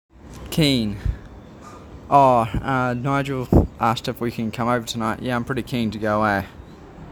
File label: "Keen", New Zealand; common New Zealand slang words and phrases